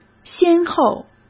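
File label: "先后", Mandarin Chinese; hsk; chinese-flashcards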